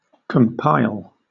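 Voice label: "compile", British English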